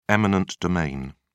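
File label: "eminent domain", British English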